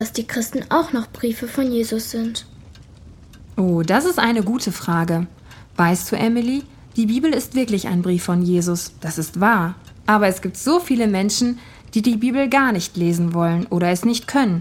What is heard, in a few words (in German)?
Hoerspiel